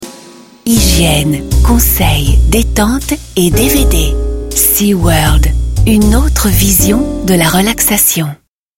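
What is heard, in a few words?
FR F commercial, French, female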